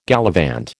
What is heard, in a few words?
"gallivant", American